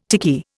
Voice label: "tiki", Indian

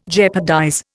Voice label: "jeopardize", Indian

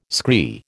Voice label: "scree", American

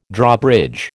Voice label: "Drawbridge", American

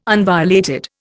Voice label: "unviolated", Indian

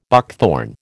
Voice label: "buckthorn", American

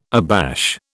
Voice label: "abash", British

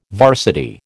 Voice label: "varsity", American

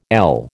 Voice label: "ell", American

